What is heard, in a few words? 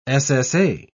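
"ssa", British English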